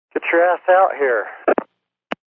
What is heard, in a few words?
Phone Get Ass Out, Telephone Voice, Male; VoiceBox